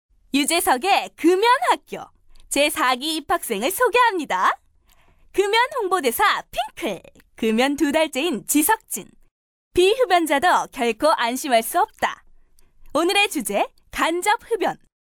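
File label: Korean female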